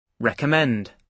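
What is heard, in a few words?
"recommend", British English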